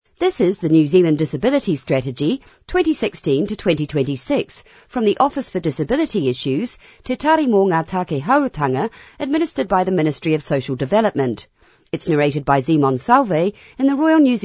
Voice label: Opening Announcem